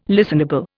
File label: "listenable", Indian